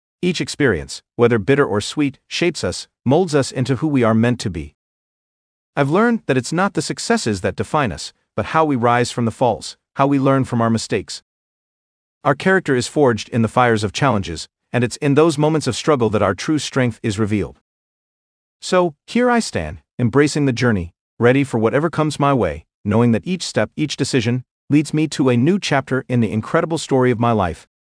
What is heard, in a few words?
voiceover